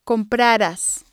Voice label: "compraras", Spanish